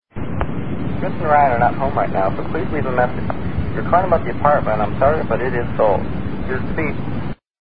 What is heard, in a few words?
answering machine